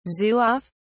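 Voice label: "zouave", British English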